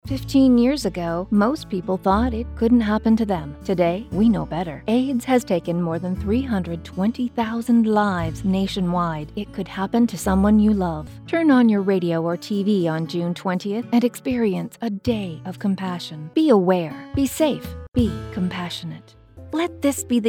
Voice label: english canadian

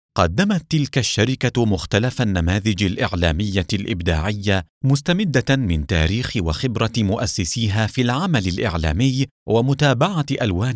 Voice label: Arabic narration

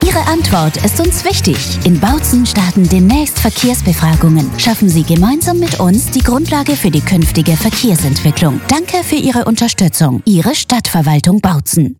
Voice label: german radio imaging

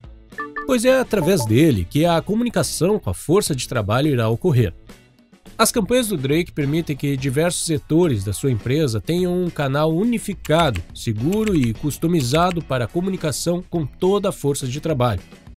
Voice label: portuguese brazilian e-learning

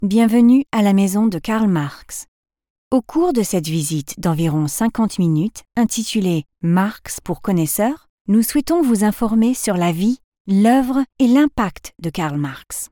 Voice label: french audio guides; comédienne de doublage professionnelle britannique

